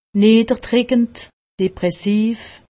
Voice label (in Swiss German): "depressiv", alsace; medecine